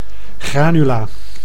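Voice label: "granula", Dutch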